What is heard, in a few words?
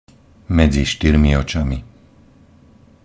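"medzi štyrmi očami", Slovak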